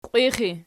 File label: "qiwixi", Archi